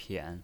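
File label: "pian" third tone, pinyin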